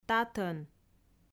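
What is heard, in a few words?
"татӧн", Komi, female; audio dict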